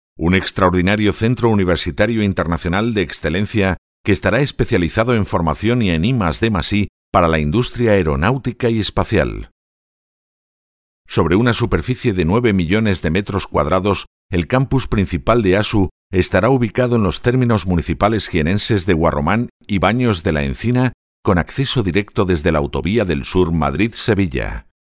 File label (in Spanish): Masculino